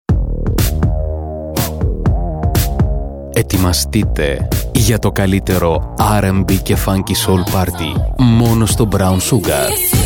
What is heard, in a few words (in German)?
Griechenland M